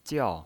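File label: "jiao", fourth tone, pinyin voice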